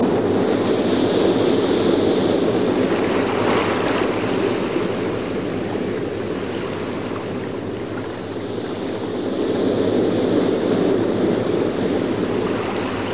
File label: gaelic